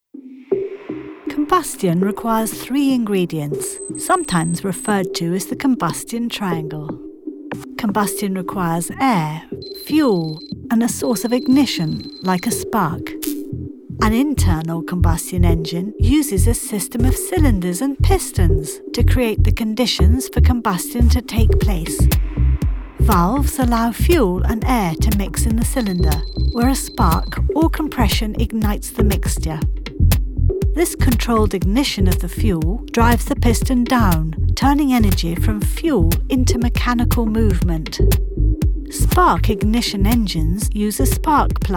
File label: academic read